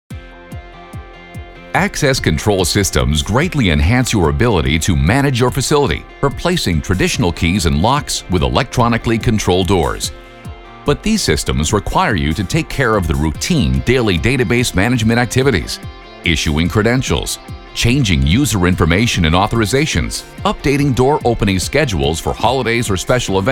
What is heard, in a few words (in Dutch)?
Product Videos Bedrijfsleven; BUITENLANDSE VOICEOVER; stemacteur